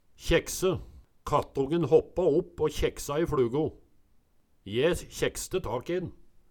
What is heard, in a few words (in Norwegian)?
kjekse, Numedalsmål